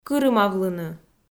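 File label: "кырымавлыны", Komi-Zyrian, female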